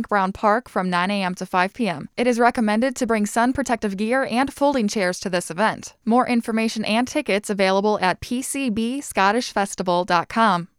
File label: Scottish Festival PSA; PCB Scottish Festival 2022